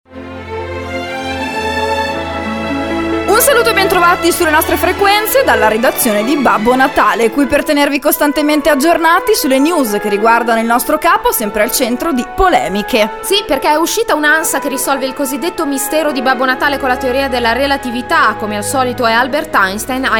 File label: PROMO RADIOBASE SPOT